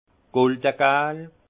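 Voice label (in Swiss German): "goldegal", Alsace Haut Rhin; jaune or; vin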